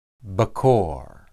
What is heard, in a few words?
"bekor", Hebrew